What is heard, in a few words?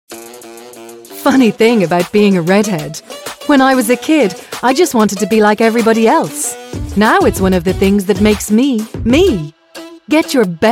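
British English, commercial; GoLocalise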